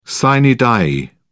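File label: "sine die", British English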